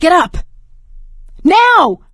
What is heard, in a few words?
revive friend loud, survivor voice; Left 4 Dead 2; producer